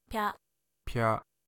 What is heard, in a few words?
"pya", Japanese